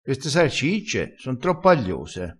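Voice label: "aglioso", Italian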